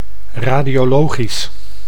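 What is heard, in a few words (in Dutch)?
"radiologisch", Dutch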